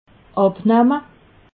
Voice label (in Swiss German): "abnamme", alsace